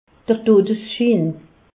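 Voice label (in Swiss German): "dodesschin", Alsace, Haut Rhin; medecine; certificat de décès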